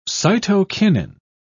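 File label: "cytokinin", British English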